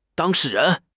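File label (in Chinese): "当事人", Chinese; HSK6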